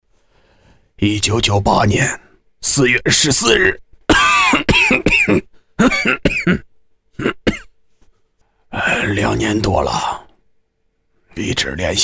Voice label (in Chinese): 老人 男 对话